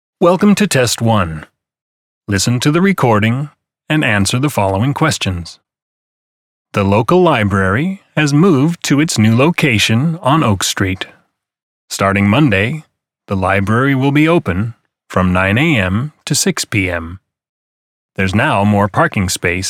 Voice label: EDUCATIONAL, 米語